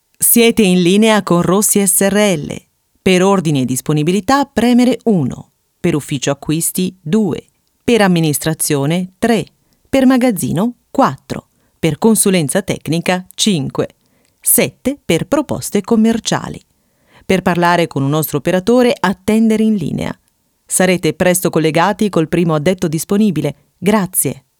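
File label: Italian, female, interactive voice response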